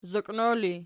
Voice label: "zoqino", Archi

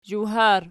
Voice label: "zhawhar", Archi

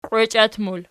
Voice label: "qwechiat", Archi

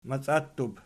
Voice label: "maciattub", Archi

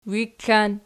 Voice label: "wiklan", archi

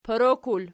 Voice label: "porokul", Archi

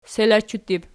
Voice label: "selachuttib", Archi

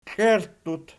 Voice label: "klierttut", Archi

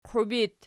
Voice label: "qwiib", Archi; qiwib

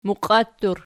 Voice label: "muqiattur", Archi

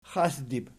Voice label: "xasdib", Archi

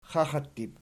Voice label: "xaxattib", Archi

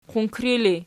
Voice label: "qunkri", Archi

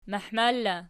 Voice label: "mahmar", Archi

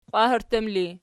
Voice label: "qwaherttum", Archi

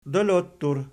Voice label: "dolottur", Archi

